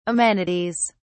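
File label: "amenities", English, British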